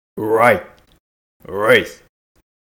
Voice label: R Sound